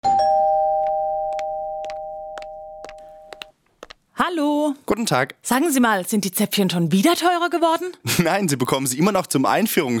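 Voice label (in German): werbung, deutsch männer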